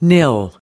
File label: "nil", us